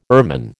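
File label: "ermine", American English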